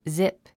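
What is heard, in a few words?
"zip", English word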